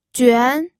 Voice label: "juan2", pinyin